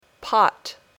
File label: "pot", English